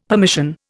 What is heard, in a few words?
"permission", Indian; Dictionary